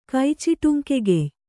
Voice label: "ಕೈಚಿಟುಂಕೆಗೆಯ್", kannada

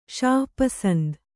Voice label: "ಷಾಪಸಂದ", Kannada

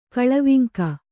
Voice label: "ಕೊಳವಂಕೆ", Kannada

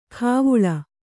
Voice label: "ಖಾವುಳ", kannada

